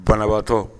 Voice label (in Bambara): banabaatɔ, Bambara